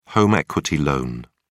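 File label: "home equity loan", British English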